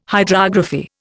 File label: "hydrography", Indian